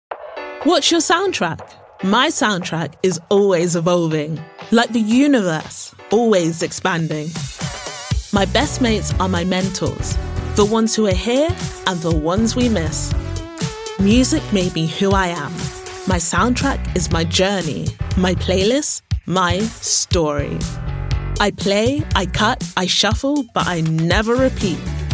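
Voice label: British English, Commercial; GoLocalise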